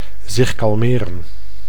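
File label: "zich kalmeren", Dutch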